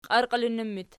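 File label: "qiarqiilinnu" locative, Archi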